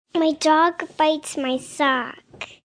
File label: My Dog Bites My Sock, 1 girl, 3 yrs